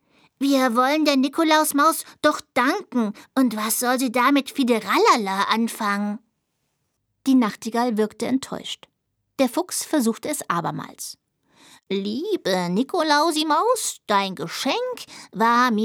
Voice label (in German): Hörbuch; Der kleine Siebenschläfer; Ein Lichterwald voller Weihnachtsgeschichten